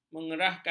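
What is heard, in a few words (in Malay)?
"mengerahkan", Malay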